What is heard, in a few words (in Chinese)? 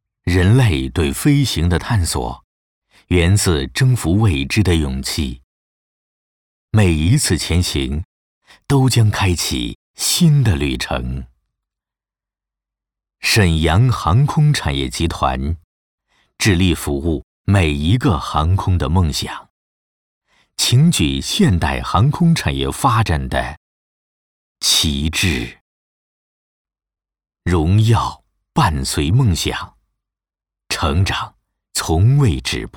【宣传片】, 国语; 沈阳航空